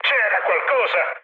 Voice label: trick, mood med, speech it; War Thunder

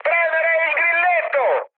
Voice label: attack position, mood high, speech it

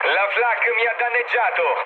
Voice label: damaged aa, mood low, speech it; War Thunder

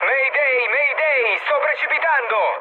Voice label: aircraft destroyed, mood med, speech it

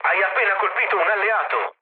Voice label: player killed ally, mood low, speech it

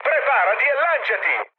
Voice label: bailout, mood med, speech it; War Thunder

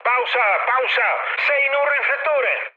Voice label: searchlite, mood high, speech it; War Thunder

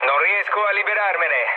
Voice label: aircraft damaged, mood low, speech it

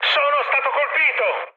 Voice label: aircraft damaged, mood high, speech it

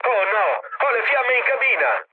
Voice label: on fire, mood low, speech it; War Thunder

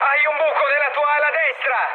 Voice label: right wing, mood med, speech it; War Thunder

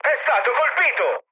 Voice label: aircraft destroyed, mood med, speech it; War Thunder

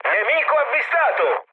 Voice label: enemy detected, mood med, speech it; War Thunder

